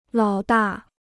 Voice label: "老大", Chinese

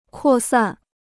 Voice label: "扩散", Chinese

